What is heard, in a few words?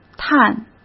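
"炭", Mandarin Chinese; chinese flashcards; hsk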